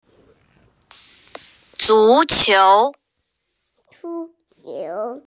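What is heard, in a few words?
"football", hearing impaired; zu2qiu2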